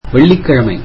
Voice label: tamil Friday